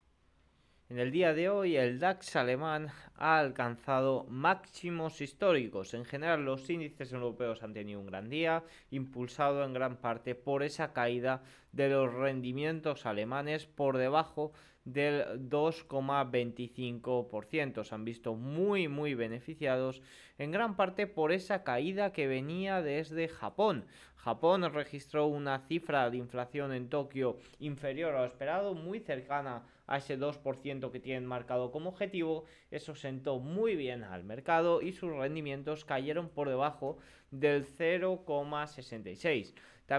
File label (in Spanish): radio; noticias bolsa; maximos historicos para el dax aleman